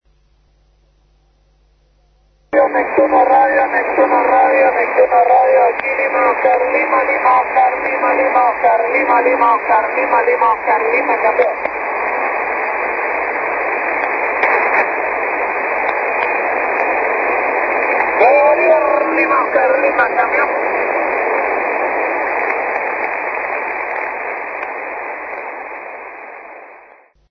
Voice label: navy, radio contact; navy buenos aires; r neptuno usb; ...and here working with 'Radio Neptuno' (not heard here)